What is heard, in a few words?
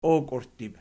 "okiurttib", Archi